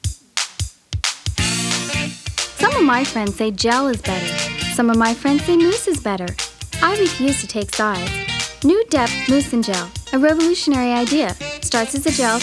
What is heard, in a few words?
Commercial Voiceover